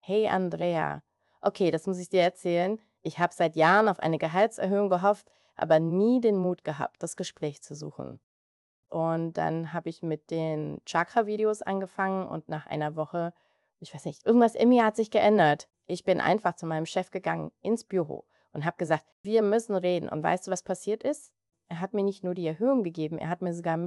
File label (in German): Voicemail